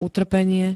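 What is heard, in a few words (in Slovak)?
"utrpenie", Slovak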